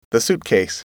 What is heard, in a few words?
"suitcase", English